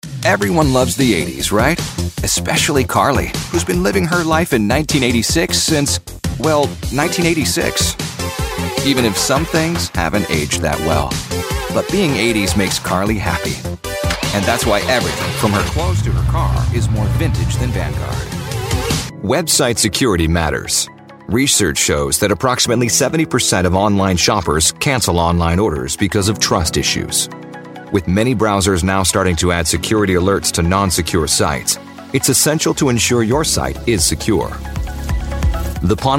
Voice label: american corporate, Rich American Male Voice